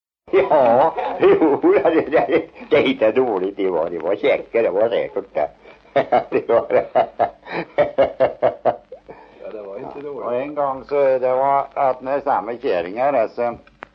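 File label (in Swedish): Järnskog Värmland, dialekter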